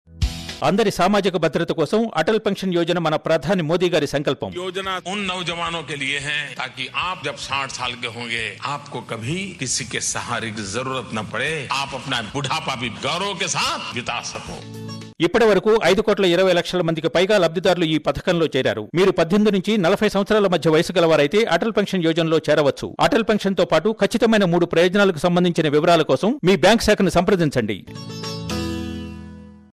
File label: Atal Pension Yojana Telugu